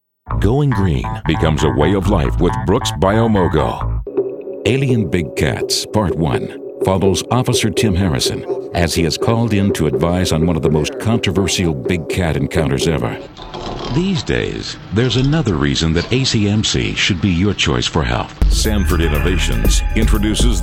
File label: english american commercial